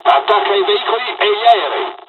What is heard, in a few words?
zero, dialogs italian; hon jpn second wave; War Thunder